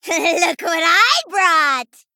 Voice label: Female Gnome Play